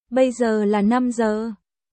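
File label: Vietnamese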